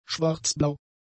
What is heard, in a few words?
"schwarzblau", German; adjectives